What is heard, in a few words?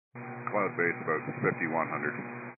122 725MHz, scanner radio